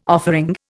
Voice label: "offering", Indian